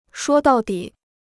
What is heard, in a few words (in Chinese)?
"说到底", Chinese